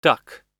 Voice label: "duck", pronunciation